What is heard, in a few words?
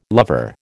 "lover", American